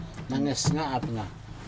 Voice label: nagesega atca, walking sun symbol, Aneityum

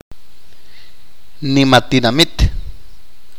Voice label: City, achi

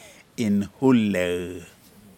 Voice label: birds Yellow throated white eye, Aneityum

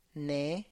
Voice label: "ne", and

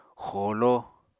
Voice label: "xooló", Apatani

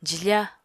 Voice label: "jifá", Apatani

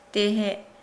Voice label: "which", Nukuoro

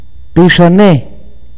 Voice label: heart, akateko

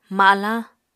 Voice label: "malañ", Apatani

